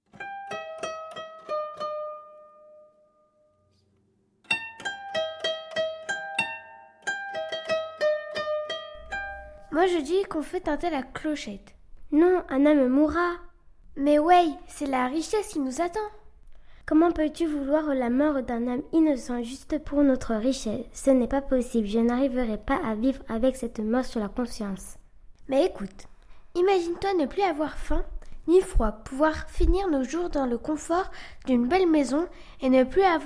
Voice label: mandarin